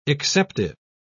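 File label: "exceptive", British English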